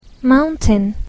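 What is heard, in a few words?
"mountain", English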